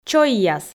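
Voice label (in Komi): "чойяс", Komi, female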